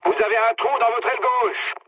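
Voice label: French left wing mood low; War Thunder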